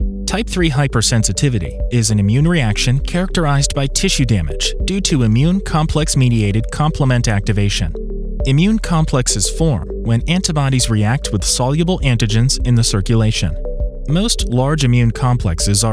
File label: Medical, voiceover